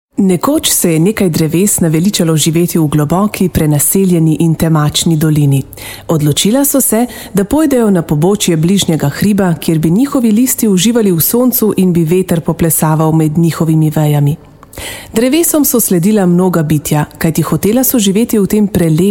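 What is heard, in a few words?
slovenian female narration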